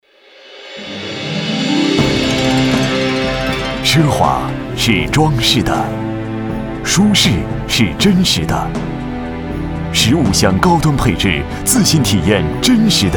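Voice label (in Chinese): 男（大气）; 雪弗兰景程; 优质大气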